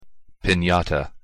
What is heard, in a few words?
"pinata", pronunciation en